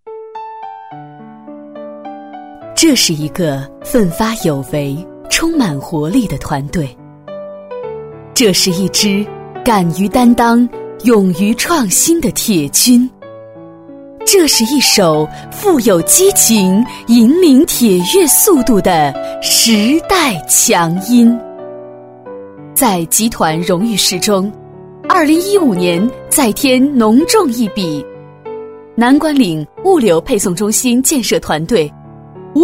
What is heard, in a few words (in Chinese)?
女, 稳重大气; 军队; 磁性时尚; 沉稳大气; 党建专题